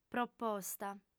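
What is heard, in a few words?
"proposta", Sardinian, female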